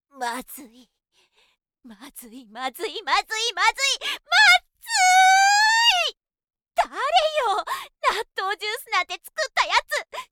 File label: female